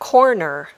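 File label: "corner", English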